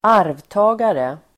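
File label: "arvtagare", Swedish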